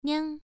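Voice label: "niang", Mandarin Chinese syllable, first tone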